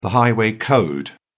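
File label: "highway code", British English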